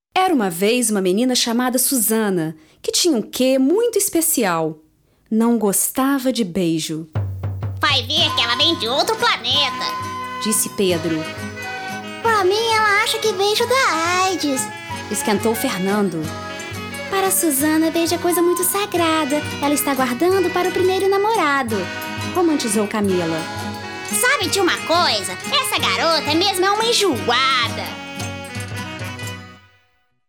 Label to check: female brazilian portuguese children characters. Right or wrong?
Right